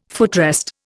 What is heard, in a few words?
"footrest", Indian